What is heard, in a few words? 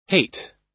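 "hate", US English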